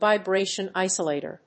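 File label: "vibration isolator", English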